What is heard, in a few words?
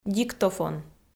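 "диктофон", Komi, female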